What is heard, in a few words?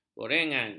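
"gorengan", Malay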